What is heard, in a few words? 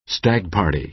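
"stag party", American English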